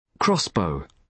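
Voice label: "crossbow", British English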